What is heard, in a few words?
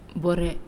"Head", Naasioi; LAE